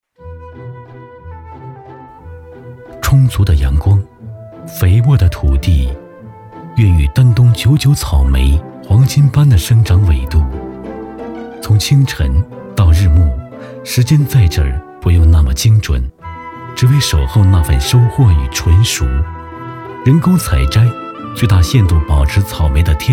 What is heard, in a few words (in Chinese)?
产品广告; 草莓